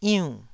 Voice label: "iunn4", taiwanese syllables

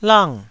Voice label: "lang4", Taiwanese syllable

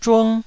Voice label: "zhung1", Taiwanese

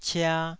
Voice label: "cia2", Taiwanese

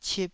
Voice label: "cip2", Taiwanese

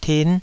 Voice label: "tin5", taiwanese

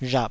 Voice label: "rhap3", taiwanese

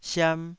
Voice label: "siam2", taiwanese

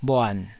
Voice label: "bhuan3", taiwanese syllables; 台語音節發音檔案

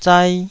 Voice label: "zai2", taiwanese

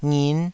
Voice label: "ngin5", taiwanese syllables

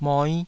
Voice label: "moi5", taiwanese syllables

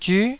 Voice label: "zyu9", taiwanese

